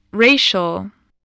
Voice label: "racial", english